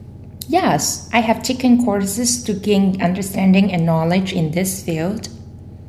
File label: female filler; interviewee